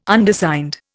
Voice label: "Undersigned", Indian